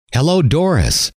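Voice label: "hello", male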